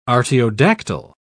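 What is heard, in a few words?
"artiodactyl", English, British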